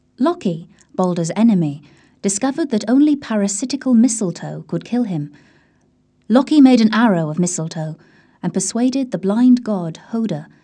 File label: GIRL; XMSMAGIC